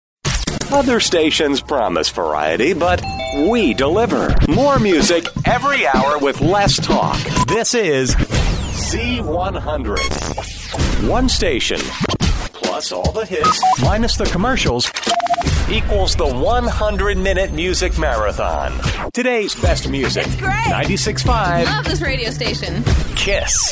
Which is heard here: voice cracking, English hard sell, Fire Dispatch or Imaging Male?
Imaging Male